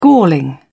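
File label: "galling", British English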